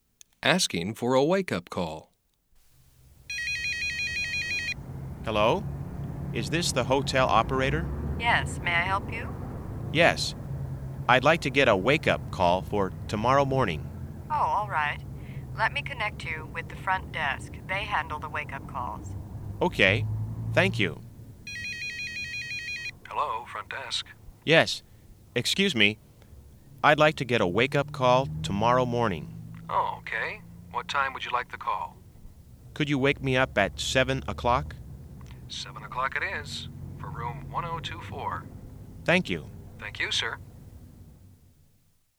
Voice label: travel english sample